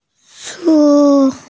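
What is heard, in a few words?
"cu1", Mandarin Chinese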